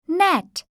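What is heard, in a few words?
"net", English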